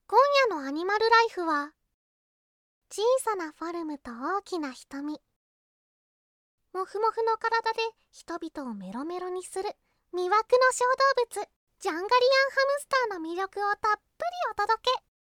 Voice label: female; Anime voice